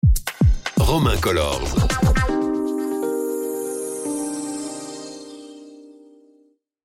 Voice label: Sweeper

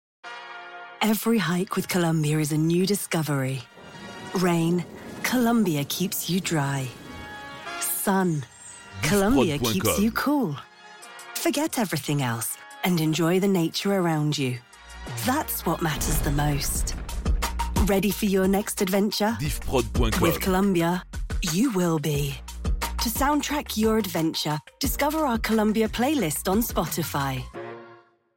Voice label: Spotify UK, publicite; Columbia Printemps 2025

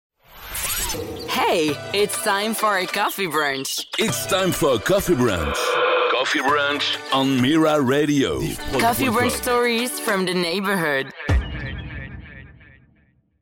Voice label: Radio Jingle Coffee Brunch

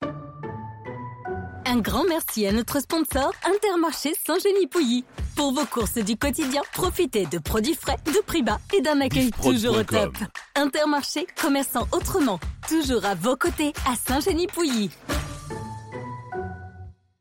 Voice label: Spot; Intermarche Saint Genis Pouilly

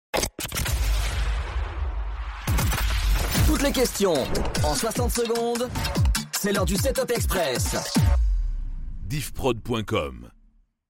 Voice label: Jingle; Setup Express

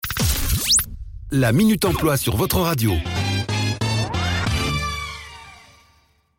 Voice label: Jingle; Minute Emploi

